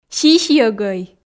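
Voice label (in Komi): "сисьйӧгӧй", Komi, female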